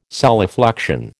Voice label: "solifluction", American